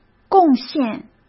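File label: "贡献", Mandarin Chinese; chinese-flashcards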